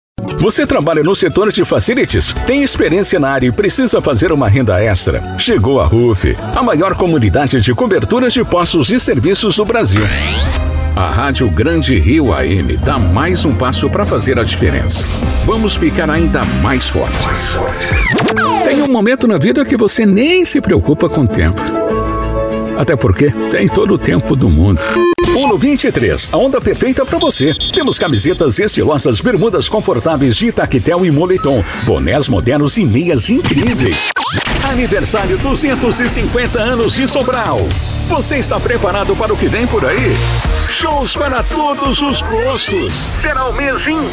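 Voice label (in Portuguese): VOZES MASCULINAS, Padrão Impacto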